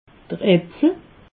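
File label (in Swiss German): "apfel", Alsatian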